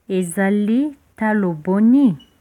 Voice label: how much, lingala